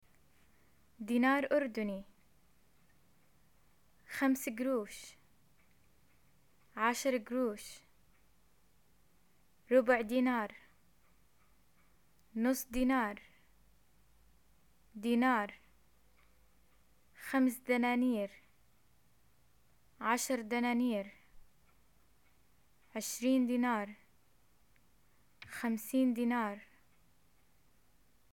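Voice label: currency, arabic, Jordanian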